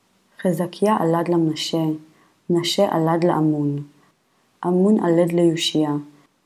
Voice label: Matthew Reading Practice, Aramaic